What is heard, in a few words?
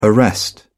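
"arrest", British English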